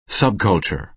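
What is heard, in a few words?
"subculture", American English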